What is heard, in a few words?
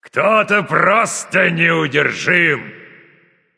unstoppable, Russian; Announcer Killstreak